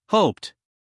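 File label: "hoped", American English, male; 1000 hours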